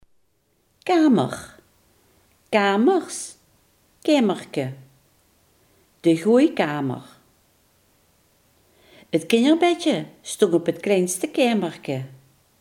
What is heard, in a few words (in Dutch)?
"kamer", Limburgs